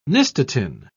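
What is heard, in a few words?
"nystatin", English, British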